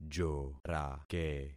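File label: "jorake", concatenated syllables; Affixing experiment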